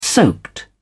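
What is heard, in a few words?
"soaked", British English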